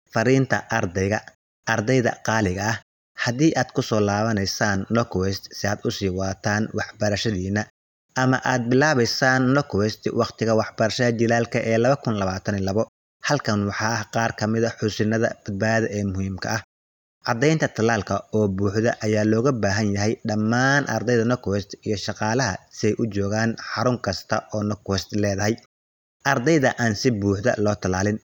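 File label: Somali, male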